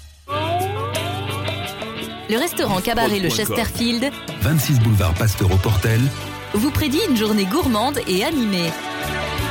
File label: Radio Jingles